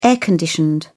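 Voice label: "air-conditioned", British English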